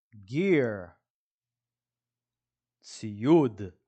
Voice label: "gear" – "ציוד"

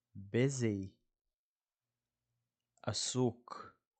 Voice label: "busy" – "עסוק"

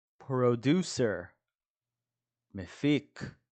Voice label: "producer" – "מפיק"

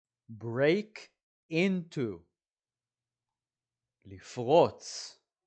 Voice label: "break into" – "לפרוץ לתוך מקום"

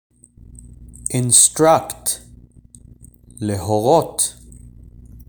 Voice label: "instruct" "להורות"

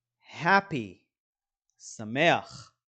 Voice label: "happy" "שמח"

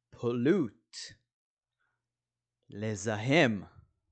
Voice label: "pollute" – "לזהם"

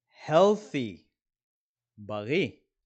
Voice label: "healthy" – "בריא"

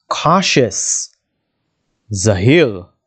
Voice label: "cautious" – "זהיר"

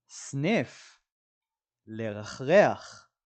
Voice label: sniff – לרחרח

